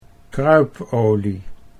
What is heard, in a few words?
"kruipolie", Dutch